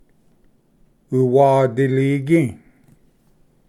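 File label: "uwadiligi", Cherokee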